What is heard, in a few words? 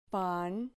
"pan", Spanish syllable